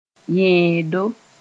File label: "yẽedo", Mooré